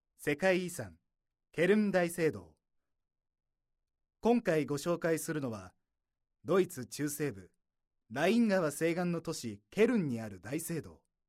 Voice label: narration